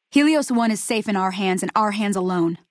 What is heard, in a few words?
female adult greeting; Fnv